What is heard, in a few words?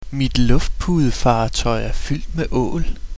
My hovercraft is full of eels, dk; Danish; Mit luftpudefartøj er fyldt med ål